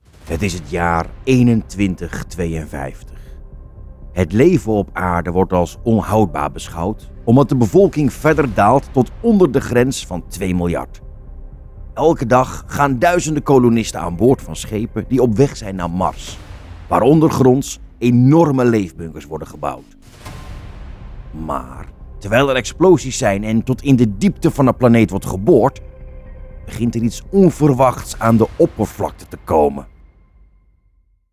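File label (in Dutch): Audioboek demo; voice-over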